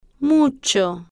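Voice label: "mucho" Spanish, female, slow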